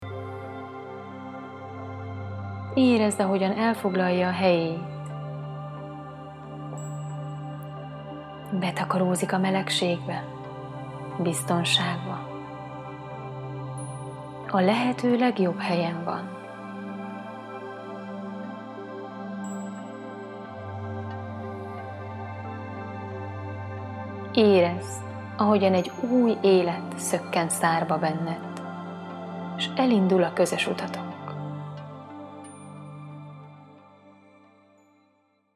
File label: Sample Meditation